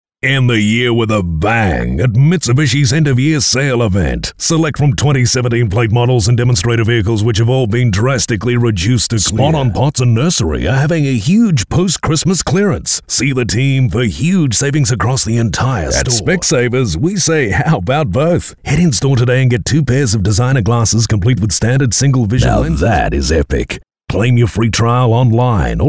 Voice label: Hard Sell